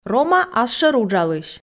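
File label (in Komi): рома асшӧр уджалысь, Komi, female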